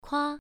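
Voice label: "kua", Mandarin Chinese, first tone, pronunciation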